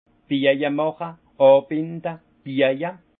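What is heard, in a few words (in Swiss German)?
"bajemache", alsace Haut-Rhin; lier les vignes; vin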